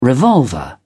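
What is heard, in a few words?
"revolver", British English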